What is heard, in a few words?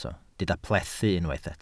"plethu", Welsh